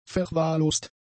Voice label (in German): "verwahrlost", German; adjectives